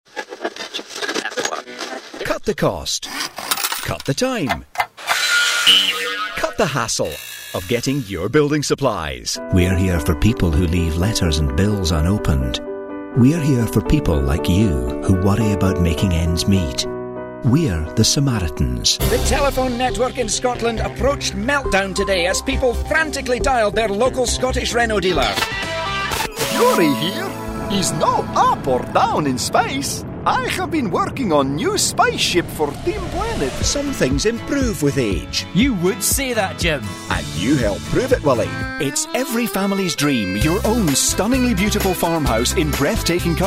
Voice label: commercial montage